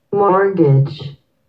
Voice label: mortgage en slow